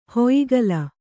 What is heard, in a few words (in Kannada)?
"ಹೊಯಿಗಲ", Kannada